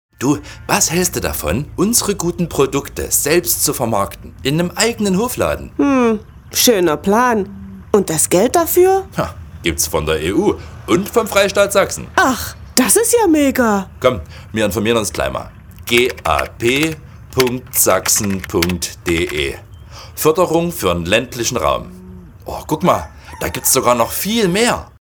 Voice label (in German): Spot Radiowerbung